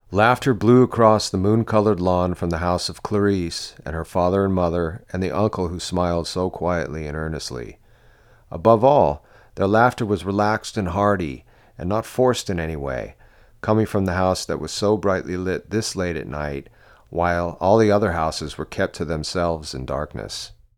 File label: normal voice